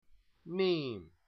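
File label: "mim", Arabic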